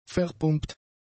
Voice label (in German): "verpumpt", german; adjectives